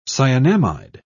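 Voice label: "cyanamide", British English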